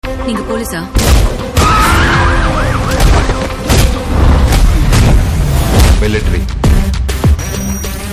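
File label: Trailer Dialogue Ringtone; Chakra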